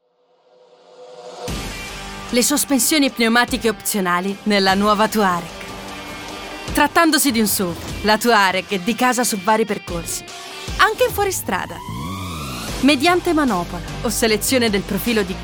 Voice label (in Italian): Video aziendale, voice-over